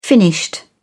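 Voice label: "finished", British English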